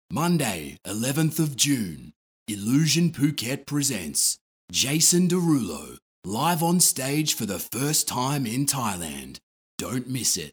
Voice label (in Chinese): 外籍英男 广告